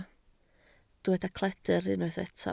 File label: "cledr", Welsh